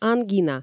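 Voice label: "ангина", Komi, female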